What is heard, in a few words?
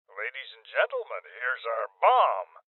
Voices Bomb Spotted Male Old; Rebel Cops